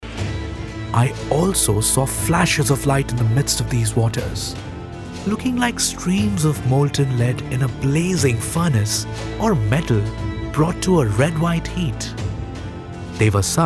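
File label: Hindi Male English narration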